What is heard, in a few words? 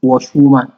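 "wash wash", Nigerian Pidgin, male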